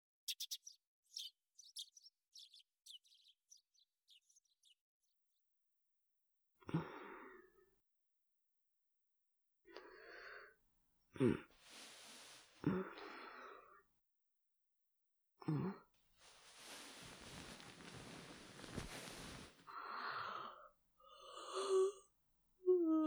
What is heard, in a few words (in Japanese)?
おはよう, ASMR